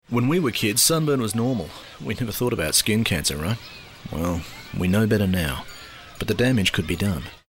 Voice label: Dad Voice